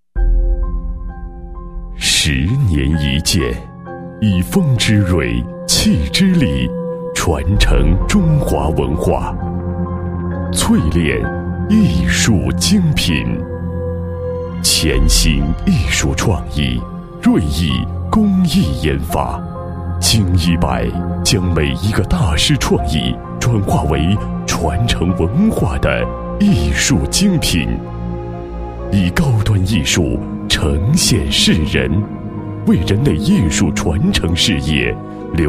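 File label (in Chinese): 男厚重大气-传承艺术类配音, 国语男声配音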